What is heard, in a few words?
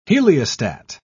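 "heliostat", British English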